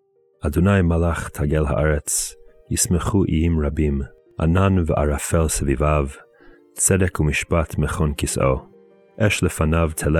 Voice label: poetry and prayer psalm hebrew